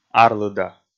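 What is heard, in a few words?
"арлыда", Komi, male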